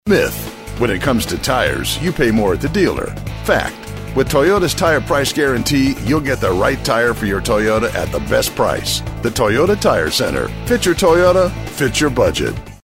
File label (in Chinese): 大气力度 轮胎广告; 丰田